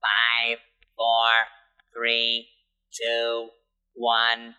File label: 5 4 3 2 1 fake robot, voice; numbers and letters